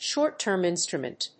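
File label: "short-term instrument", English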